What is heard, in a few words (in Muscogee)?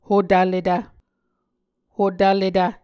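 "hotvletv", Muscogee word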